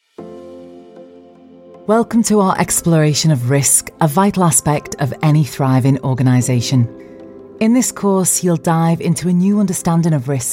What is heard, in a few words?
Liverpool Liverpudlian Scouse voiceover, corporate training